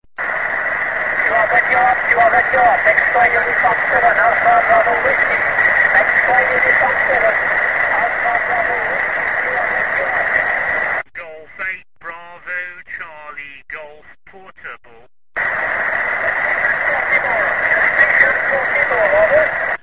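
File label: amateur radio contact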